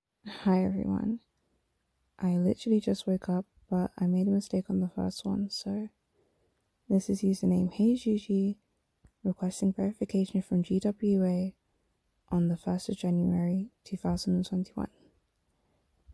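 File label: Verification Request, female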